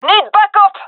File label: request backup, gendermerie fem; vo